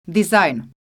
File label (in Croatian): "dizajn", Croatian